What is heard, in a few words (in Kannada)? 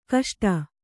"ಕಷ್ಟ", Kannada